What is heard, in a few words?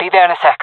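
on my way, jungle female; omw; vo; agents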